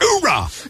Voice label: "ooh rah", voice; ooh ahh